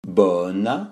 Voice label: "bona", esperanto; vortoj

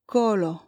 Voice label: "kolo", esperanto; vortoj

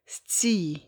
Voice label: "scii", esperanto; vortoj

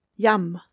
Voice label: "jam", esperanto; vortoj

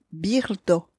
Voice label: "birdo", esperanto; vortoj

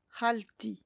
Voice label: "halti", esperanto; vortoj

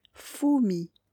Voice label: "fumi", esperanto; vortoj

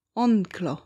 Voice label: "onklo", esperanto; vortoj

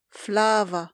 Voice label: "flava", esperanto; vortoj

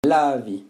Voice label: "lavi", esperanto; vortoj

